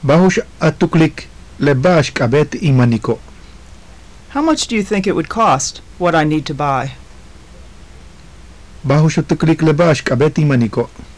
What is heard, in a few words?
conversation, maya; Bajux a tuklik le ba'ax k'abéet in maniko'?; How much do you think it would cost, what I need to buy?; JUNP'IIT MAYA